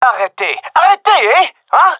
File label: taking fire friendly, gendarmerie male; vo; agents